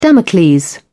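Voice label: "damocles", British English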